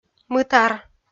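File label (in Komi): "мытар", Komi, female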